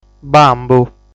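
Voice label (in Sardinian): "Bambu", Sardinian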